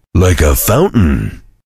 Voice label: cheer; svencoop; voice commands; Duke

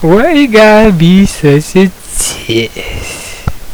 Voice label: seductive; svencoop; weedlebeeg

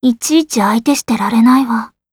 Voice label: run away, voice commands; svencoop; Blanc

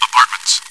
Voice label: chitchat, bot, voice commands; svencoop

